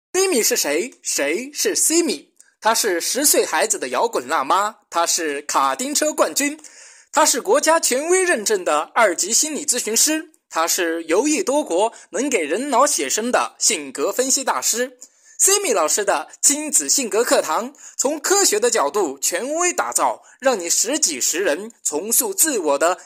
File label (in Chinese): 广告示例